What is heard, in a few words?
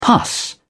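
"pus", British English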